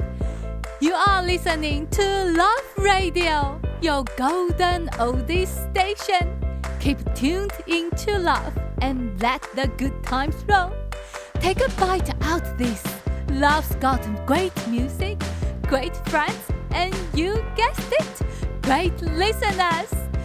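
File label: Chinese, accented English; GoLocalise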